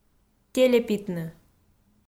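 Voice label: "телепитны", Komi, female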